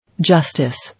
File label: "Justice", English